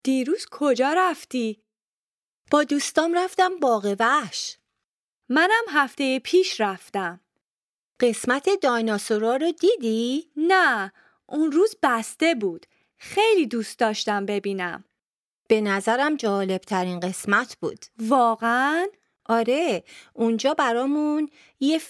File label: Dialogue lesson Farsi